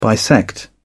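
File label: "bisect", British English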